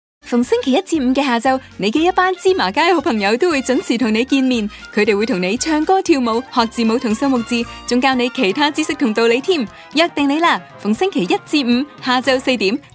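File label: Cantonese Mega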